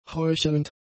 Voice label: "heuchelnd", German; adjectives

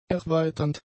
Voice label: "erweiternd", German; adjectives

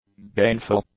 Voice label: "gainful", English; adjectives

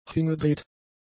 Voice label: "hingedreht", German; adjectives

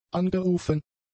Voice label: "angerufen", German; adjectives

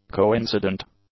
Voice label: "coincident", English; adjectives

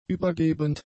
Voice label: "übergebend", German; adjectives

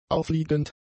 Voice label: "auffliegend", German; adjectives

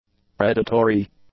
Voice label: "predatory", english; adjectives

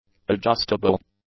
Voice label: "adjustable", English; adjectives

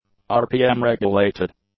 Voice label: "RPM-regulated", english; adjectives

